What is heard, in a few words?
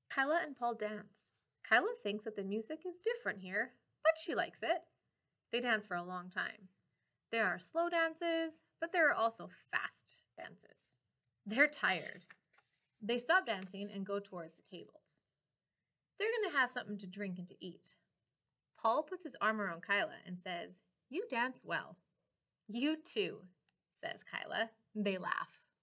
presque mort english